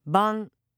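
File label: "ban", Japanese; NewNakama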